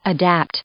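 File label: "adapt", English; verb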